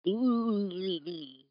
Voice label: mumble